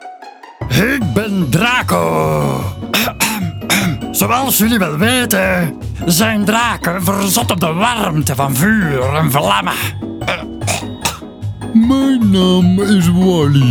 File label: flemish animation